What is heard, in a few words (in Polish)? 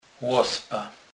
"lospa", kurpiow